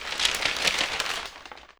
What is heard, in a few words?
NEWS; AKAI Anime Sound FX